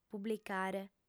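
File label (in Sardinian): "publicare", Sardinian, female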